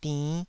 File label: "dinn5", Taiwanese